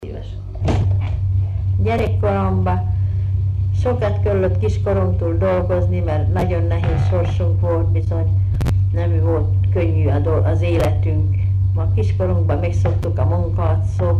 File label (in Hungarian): nyelvjárás gyűjtések